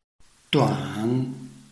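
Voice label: "duan", Chinese, tone 3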